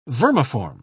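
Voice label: "vermiform", British English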